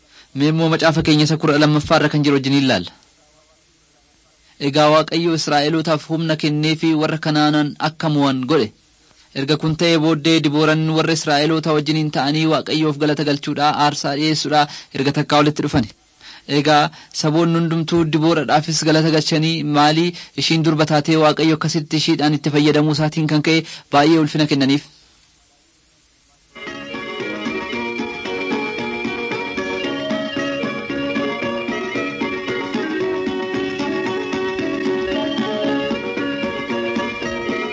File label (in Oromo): oromo wellega